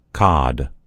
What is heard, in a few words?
"cod", en US; gcompris